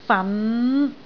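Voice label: "fan5", Cantonese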